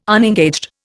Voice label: "unengaged", Indian

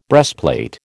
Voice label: "breastplate", American

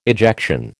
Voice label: "ejection", American

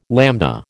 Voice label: "lambda", American

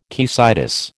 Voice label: "caecitis", American

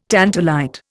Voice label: "tantalite", Indian

